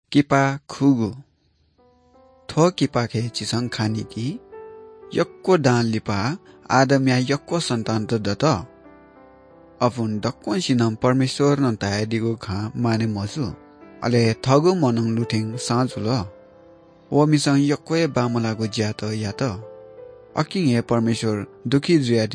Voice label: good news newari bhaktapur

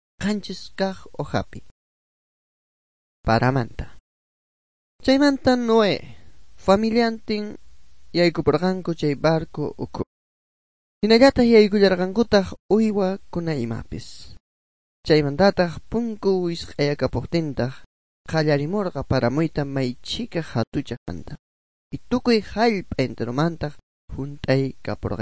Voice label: good news quechua bolivian sucre; bible

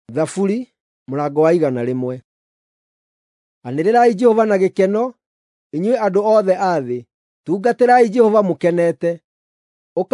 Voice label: psalms, gikuyu drama

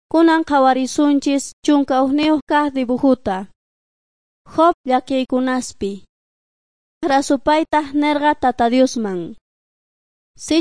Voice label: beginning with god quechua s bolivian cochabamba

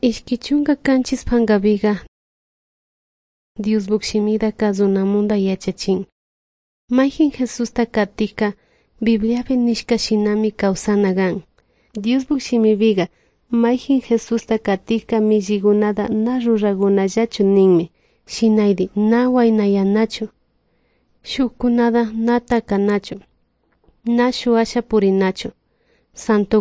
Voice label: good news quichua de salasaca

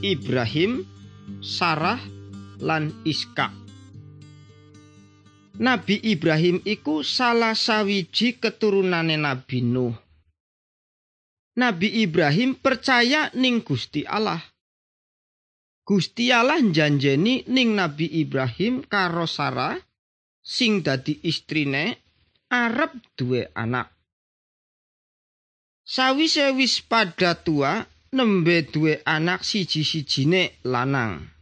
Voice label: good news jawa indramayu, javanese bible

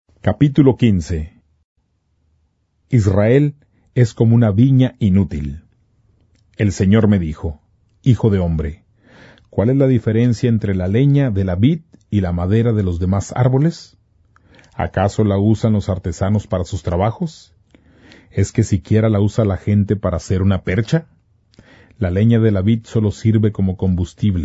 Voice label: spanish, non-drama

